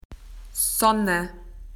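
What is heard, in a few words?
"sun", Australian English; PictureDictionary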